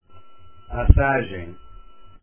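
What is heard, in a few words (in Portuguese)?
"passagem" Portuguese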